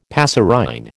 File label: "passerine", American